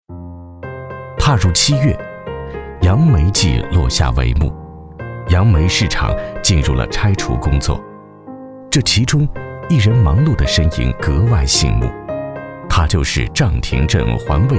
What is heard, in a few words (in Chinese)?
专题 人物 纪实